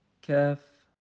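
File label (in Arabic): "ك", Arabic; quiz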